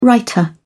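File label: "writer", English, British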